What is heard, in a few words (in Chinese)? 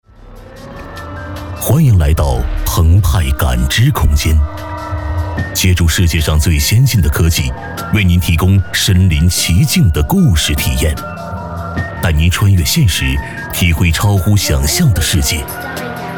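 男【广告】科技感; 澎湃感知空间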